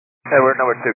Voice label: 122 725MHz, scanner radio